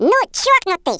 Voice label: oi nutter, Speech, Russian